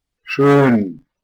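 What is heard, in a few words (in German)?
"schoen", German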